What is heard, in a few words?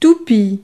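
"toupie", French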